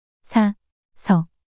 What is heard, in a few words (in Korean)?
"사서" 낱소리 여자